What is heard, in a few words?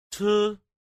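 "chi", Chinese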